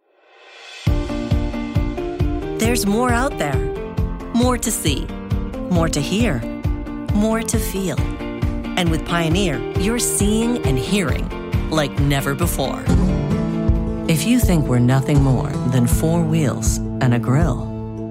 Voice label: English Commercial